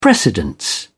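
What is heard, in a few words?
"precedence", British English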